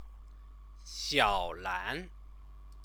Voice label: "xiaolan", Chinese

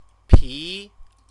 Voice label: "pi", Chinese; CTCFL; University of Oxford

